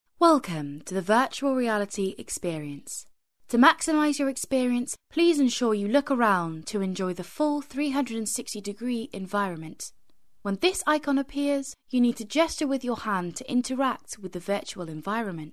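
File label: Welsh English, female, voice over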